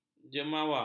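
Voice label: "jemawa", Malay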